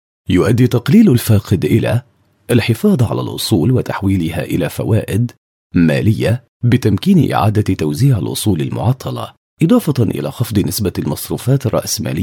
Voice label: arab, man